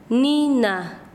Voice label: Chanka, quechua; fuego